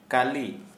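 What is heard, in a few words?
Santali, male, deaf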